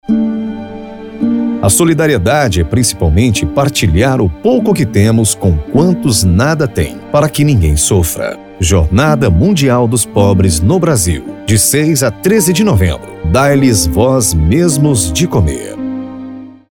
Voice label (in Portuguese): spot radio; jornada mundial dos pobres 2022; jmp 2022